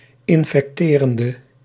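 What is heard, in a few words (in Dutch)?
"infecterende", Dutch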